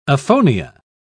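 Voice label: "aphonia", British English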